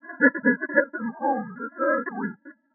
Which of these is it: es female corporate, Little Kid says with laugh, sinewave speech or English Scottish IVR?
sinewave speech